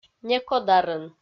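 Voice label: "некодарын", Komi, female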